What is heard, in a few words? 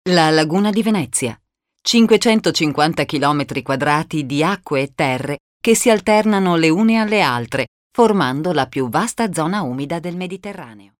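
Italian, female, narration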